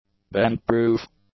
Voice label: "bend-proof", English; adjectives